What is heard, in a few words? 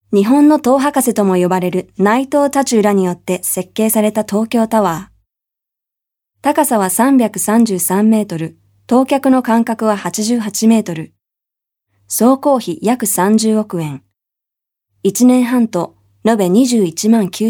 Japanese Straight